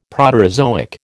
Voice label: "Proterozoic", American

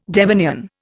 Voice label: "Devonian", Indian

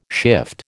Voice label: "shift", American

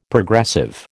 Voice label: "Progressive", American

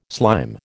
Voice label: "slime", American

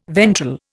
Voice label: "ventral", Indian

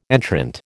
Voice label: "entrant", American

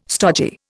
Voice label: "stodgy", Indian

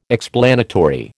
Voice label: "explanatory", American

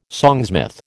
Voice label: "songsmith", American

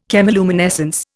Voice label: "chemiluminescence", Indian

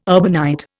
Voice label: "urbanite", Indian

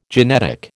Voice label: "genetic", American

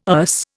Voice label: "Erse", Indian

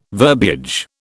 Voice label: "verbiage", British

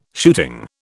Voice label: "shooting", British

